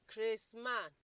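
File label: "craseman", Nigerian Pidgin, female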